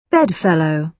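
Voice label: "bedfellow", British English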